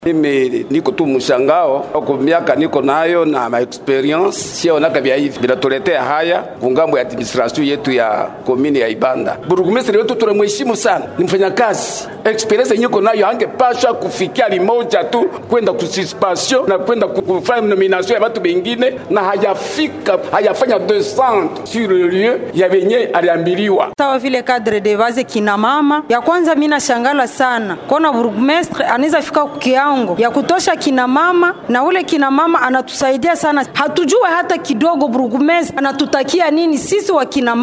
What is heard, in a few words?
VOX POP; CADRES DE BASE PANZI